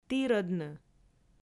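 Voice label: "тірӧдны", Komi, female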